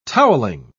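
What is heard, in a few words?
"toweling", British English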